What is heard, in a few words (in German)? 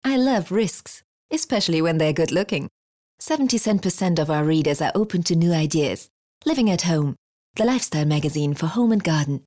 uk englisch